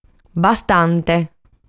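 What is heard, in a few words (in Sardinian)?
"Bastante", Sardinian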